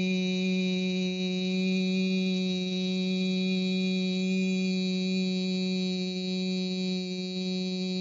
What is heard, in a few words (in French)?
"iii", Voyelles; TEACH